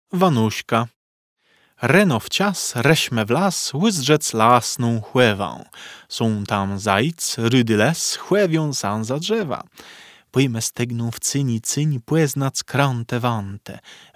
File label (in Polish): Wanóżka wymowa; piosenki dla dzieci